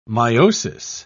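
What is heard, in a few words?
"miosis", British English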